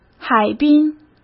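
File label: "海滨", Mandarin Chinese; hsk; chinese-flashcards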